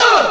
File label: "uh" group of men; ooh ahh; uh um